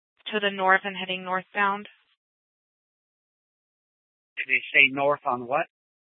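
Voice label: Police Department Dispatch, scanner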